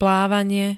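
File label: "plávanie", Slovak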